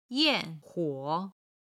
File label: "yan4huo3", chinese